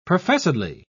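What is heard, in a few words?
"professedly", English, British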